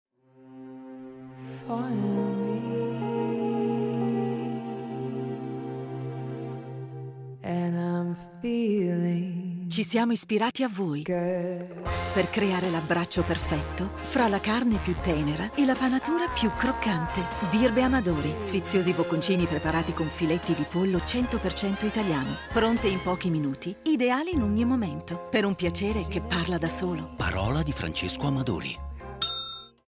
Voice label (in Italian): Spot TV; Amadori; Birbe, l abbraccio perfetto